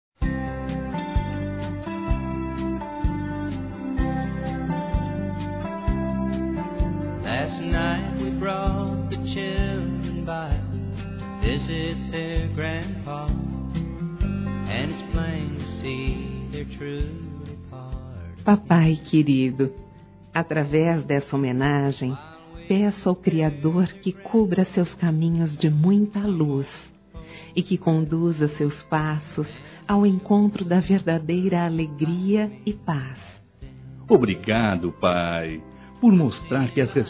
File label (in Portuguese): PAI, Telemensagens